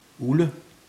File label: "ugle", Danish